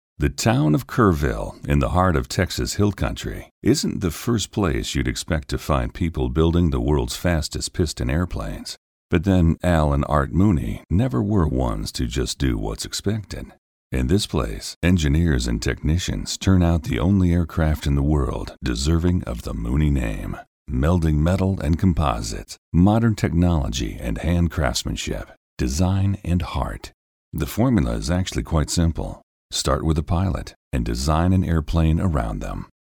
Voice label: documentary